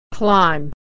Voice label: "climb", American English